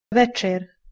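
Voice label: "večer" Bosnian, female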